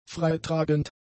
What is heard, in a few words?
"freitragend", German; adjectives